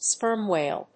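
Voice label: "sperm whale", English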